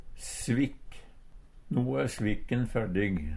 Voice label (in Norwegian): svikk, Numedalsmål; Substantiv hankjønn; Personleg utstyr, klede, sko